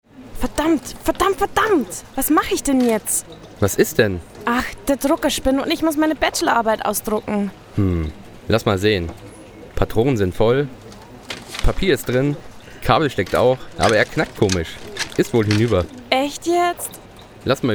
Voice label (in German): Werbung